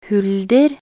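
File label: "hulder", Norwegian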